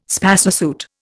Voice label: "spacesuit", Indian